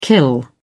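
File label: "kill", British English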